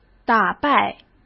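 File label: "打败", Mandarin Chinese; chinese-flashcards; hsk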